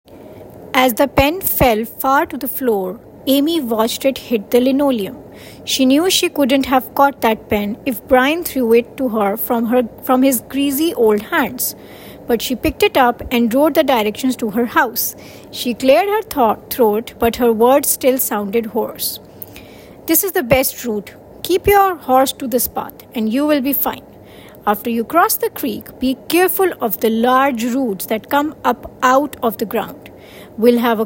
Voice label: Spoken English